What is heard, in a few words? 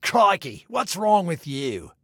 Taunt Learn, Male; Male 02